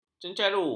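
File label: "cencaru", Malay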